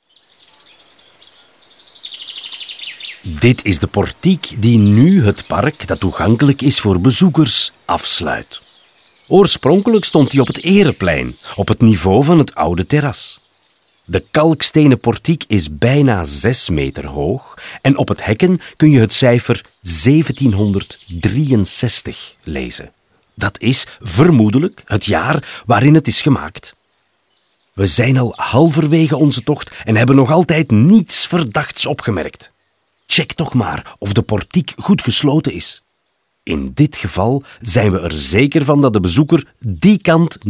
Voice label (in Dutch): audioguide nl enfant; modave